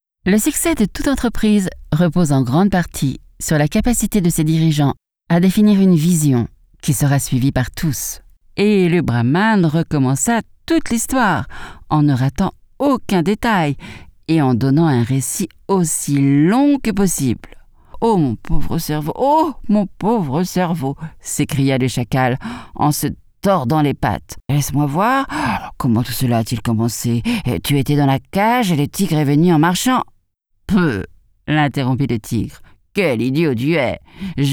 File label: generique, voix off femme